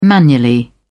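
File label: "manually", English, British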